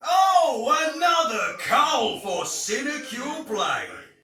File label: See Player Shout, Soldier Male; Dialog; Barks; Battle; Sinecure